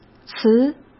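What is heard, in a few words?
"辞", Mandarin Chinese; chinese flashcards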